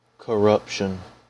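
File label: "corruption", American English; pronunciations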